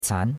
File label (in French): "can2", chinois; Cours-de-Chinois